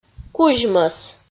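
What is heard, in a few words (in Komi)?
"кузьмӧс", Komi-Zyrian, female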